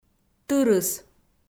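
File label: "тырыс", Komi, female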